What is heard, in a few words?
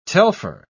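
"telpher", British English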